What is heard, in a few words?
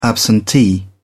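"absentee", British English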